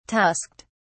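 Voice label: "tusked", British English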